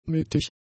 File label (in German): "mittig", German; adjectives